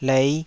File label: "lei5", Taiwanese